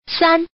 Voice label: Woman